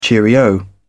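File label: "cheerio", British English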